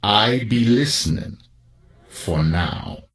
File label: NPC Death Knight Troll Male Greeting